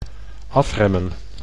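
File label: "afremmen", Dutch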